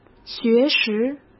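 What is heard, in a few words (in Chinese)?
"学时", Mandarin Chinese; hsk; chinese-flashcards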